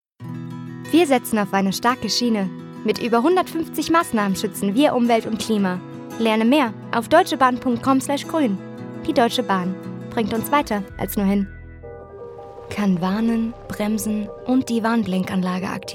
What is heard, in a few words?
German